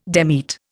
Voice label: "demit", Indian

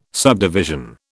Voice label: "subdivision", British

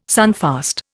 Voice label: "sunfast", Indian

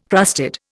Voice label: "crusted", Indian

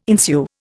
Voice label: "ensue", Indian

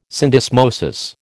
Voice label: "syndesmosis", American

